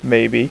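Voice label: "Maybe", male; VOICE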